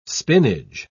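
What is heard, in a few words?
"spinage", British English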